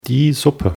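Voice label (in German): "suppe", German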